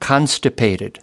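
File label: "constipated", US English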